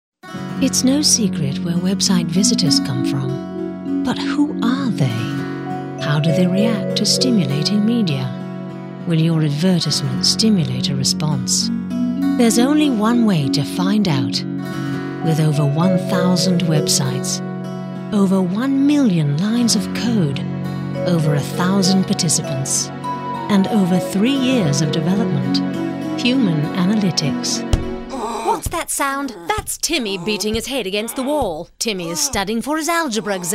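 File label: UK English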